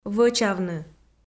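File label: "вӧчавны", Komi, female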